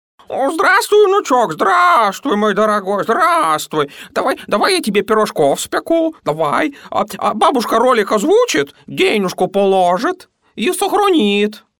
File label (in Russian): babushka